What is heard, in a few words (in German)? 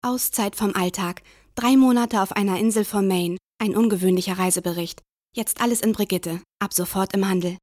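off, sprecher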